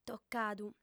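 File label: "tocadu", lingua sarda, female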